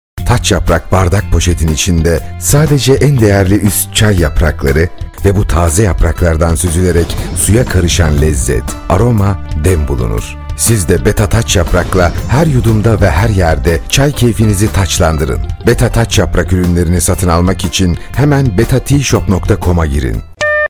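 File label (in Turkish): reklam, Turkish; betatea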